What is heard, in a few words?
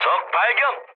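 Korean enemy detected mood med, speech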